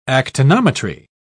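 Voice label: "actinometry", British English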